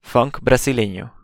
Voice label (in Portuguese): "funk brasileño", espanhol; estilos musicais em espanhol